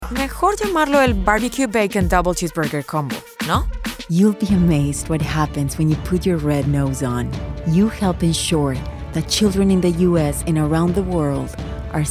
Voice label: COMMERCIALS